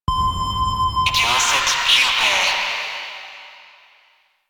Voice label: Ship Announcer